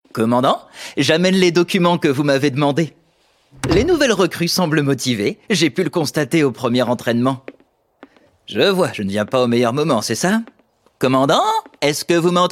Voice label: Anime soldat malicieux; My Happy Marriage; Netflix

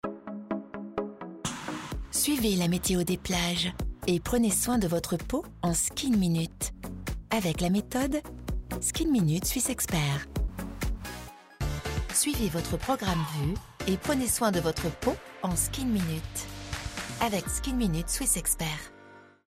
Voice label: Tv douce légère; BodyMinut

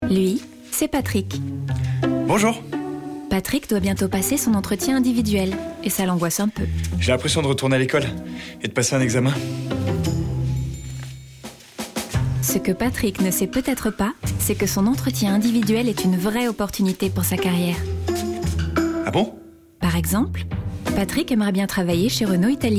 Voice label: instit FR; RenaultRH

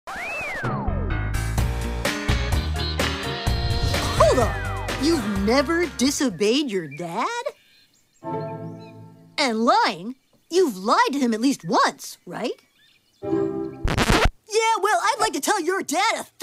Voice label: Voix Ado Geek Cartoon

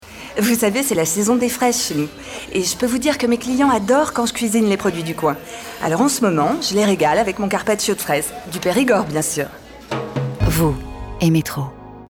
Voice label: pub dynamique enthousiaste; METRO

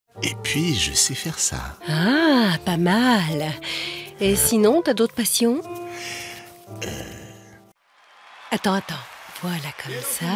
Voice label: comédie; GALETTES ST MICHEL

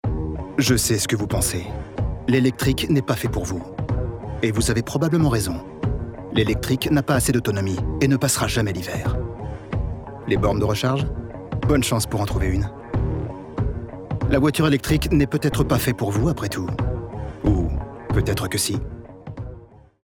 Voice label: H FR PUB; Audi

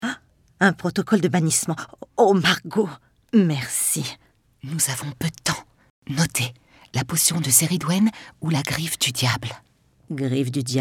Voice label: Doublage Voix agée; Mme Arcady

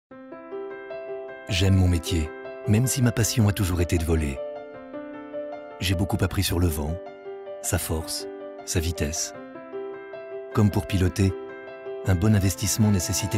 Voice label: doux souriant simple; barclays

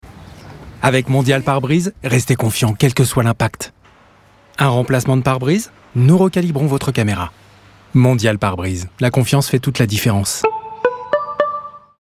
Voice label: Publicite Pare Brise; Mondial

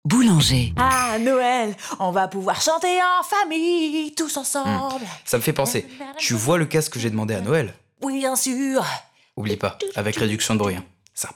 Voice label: Spot; Boulanger; JBL

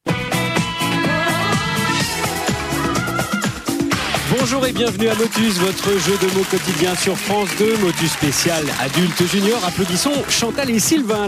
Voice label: Voix Off Emission TV; Motus; France 2

